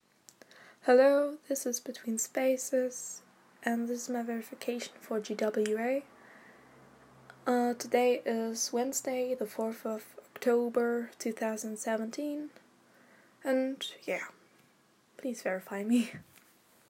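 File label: German accent, Female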